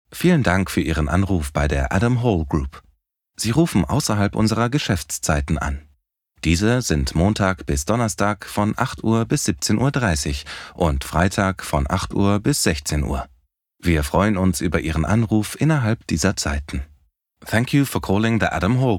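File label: German English Telephone Greeting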